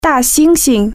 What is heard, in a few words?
"gorilla", Chinese